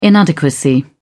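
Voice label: "inadequacy", British English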